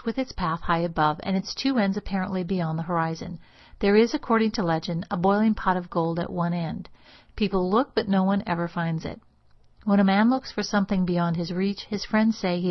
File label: Rainbow Passage 200, rate of speech